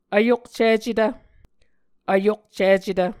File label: "vyokcicetv", muscogee; Mvskoke Words with Voicing Consonants; Martin, Jack B., and Margaret McKane Mauldin. 2023. A Dictionary of Creek/Muskogee; SIL International